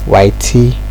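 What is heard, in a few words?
"whitey", Nigerian Pidgin, male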